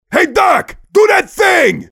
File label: mobster activate charge